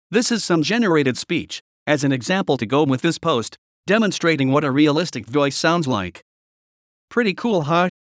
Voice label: sample neural speech